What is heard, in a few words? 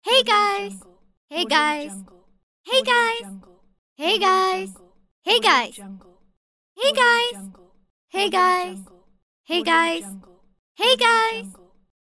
girl says voice hey guys pack